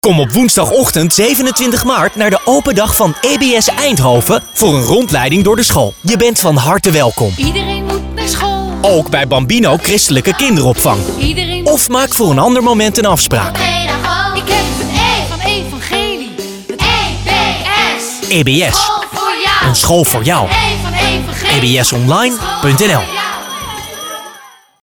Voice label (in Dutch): Commercial Radio; EBS Eindhoven 2019 Groot Nieuws Radio